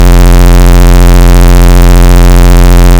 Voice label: luna dec voice